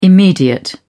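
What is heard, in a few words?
"immediate", British English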